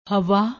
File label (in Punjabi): "hwa", Punjabi